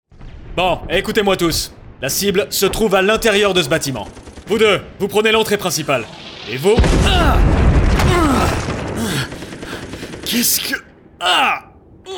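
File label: Character Natural (French)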